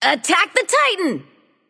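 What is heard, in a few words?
attack, male; smite; bellona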